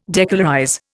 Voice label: "decolorize", Indian